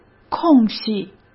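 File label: "空隙", Mandarin Chinese; chinese-flashcards; hsk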